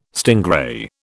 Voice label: "stingray", British

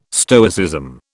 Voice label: "Stoicism", British

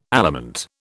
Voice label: "aliment", British

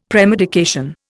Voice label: "premedication", Indian; Dictionary

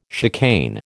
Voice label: "chicane", American

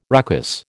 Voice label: "ruckus", American

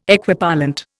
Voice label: "Equipollent", Indian

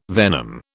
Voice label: "venom", British

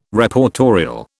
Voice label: "Reportorial", British

